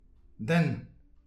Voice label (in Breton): "den", distagadur; petit precis de prononciation